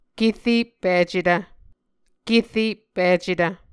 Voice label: "kereppicetv", Muscogee

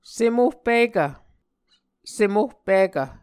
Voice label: "sem ohpikv", Muscogee

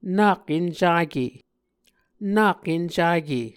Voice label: "nak encake", Muscogee

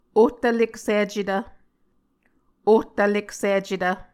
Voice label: "ohtvleksicetv", Muscogee

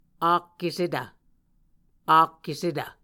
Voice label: "ak esetv", Muscogee

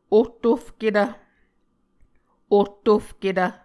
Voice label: "ohtofketv", Muscogee

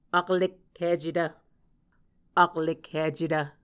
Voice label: "aklekhicetv", Muscogee

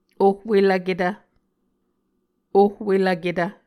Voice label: "ohwelvketv", Muscogee

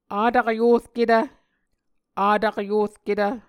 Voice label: "takyurketv", Muscogee

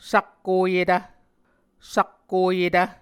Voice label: "sakkoyetv", Muscogee; Mvskoke Words with Repeated Consonants; A Dictionary of Creek/Muskogee; SIL International

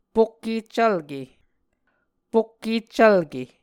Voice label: "pokkeccvlke", Muscogee; Mvskoke Words with Repeated Consonants; A Dictionary of Creek/Muskogee; SIL International

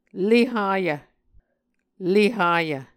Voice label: "le hayv", Muscogee

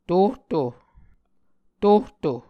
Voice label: "tohto", Muscogee

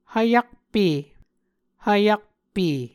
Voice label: "hvyakpe", Muscogee

